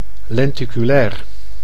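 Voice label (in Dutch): "lenticulair", Dutch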